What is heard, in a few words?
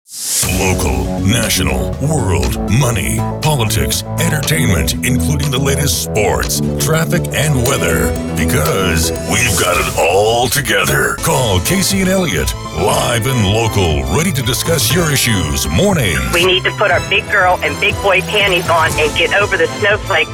NEWS Talk Radio